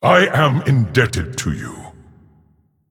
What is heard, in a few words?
Male Titan Forged Emote Thanks